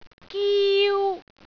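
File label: "giu1", Cantonese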